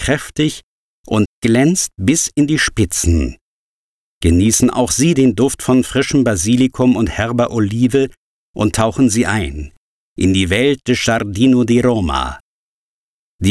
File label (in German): Medipharma Werbung